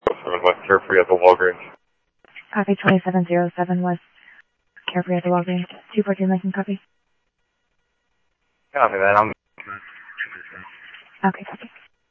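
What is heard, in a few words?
Police Department, scanner audio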